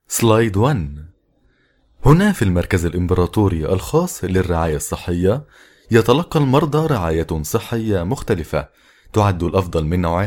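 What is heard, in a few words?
Arabic, corporate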